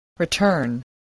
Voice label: "return", English

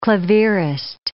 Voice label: "clavierist", English

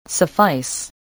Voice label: "suffice", spelling

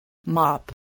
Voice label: "mop", voice, spelling

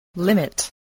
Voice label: "limit", spelling

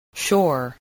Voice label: "shore", spelling

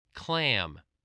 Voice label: "clam", English, voice

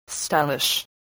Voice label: "stylish", spelling

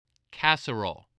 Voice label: "casserole", spelling

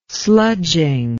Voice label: "sludging", English

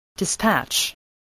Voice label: "dispatch", spelling

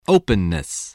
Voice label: "openness", voice, spelling

